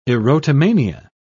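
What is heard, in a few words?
"erotomania", British English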